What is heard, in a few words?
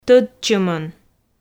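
"тӧдчымӧн" Komi, female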